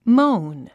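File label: "moan", English; 発音 móun モォゥン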